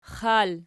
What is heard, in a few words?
xal nom, archi